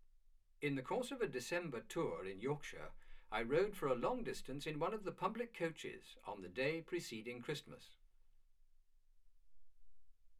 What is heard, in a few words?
male speech english